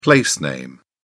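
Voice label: "place name", British English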